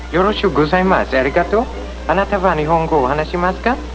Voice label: japanese; lorrelibrary